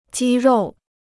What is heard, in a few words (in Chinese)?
"肌肉", Chinese